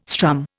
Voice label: "strum", Indian

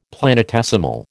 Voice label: "planetesimal", American

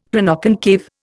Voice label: "Planoconcave", Indian; Dictionary

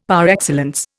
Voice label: "par excellence", Indian; Dictionary

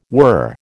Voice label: "were", American

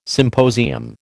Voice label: "symposium", American

